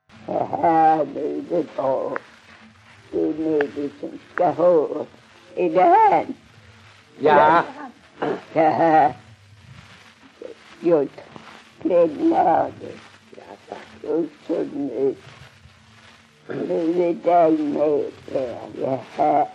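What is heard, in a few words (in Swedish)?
Strövelstorp Skåne, dialekter; Folkminnen; Svenska sagor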